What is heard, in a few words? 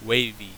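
"wavy", English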